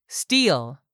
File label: "STEAL", english; 3000 words